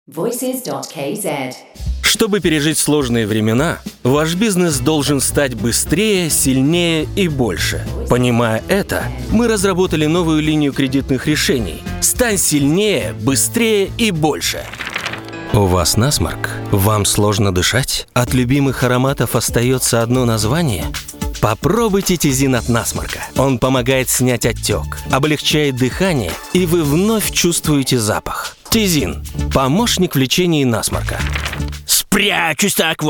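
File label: Russian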